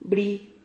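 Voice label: "bri", Spanish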